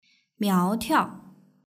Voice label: "miaotiao", Chinese; bodyfigure

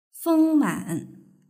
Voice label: "fengman", Chinese; bodyfigure

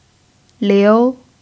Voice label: "liu2", chinese pinyin

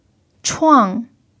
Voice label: "chuang4", chinese pinyin

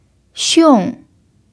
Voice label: "xiong4", Chinese pinyin

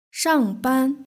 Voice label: "shangban", Chinese; hsk5

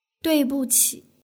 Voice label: "duibuqi", Chinese; hsk5

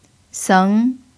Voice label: "seng2", chinese pinyin

